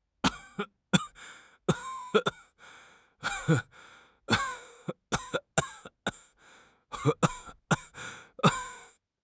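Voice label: "kuai4 le4", Chinese; HSK; HSK2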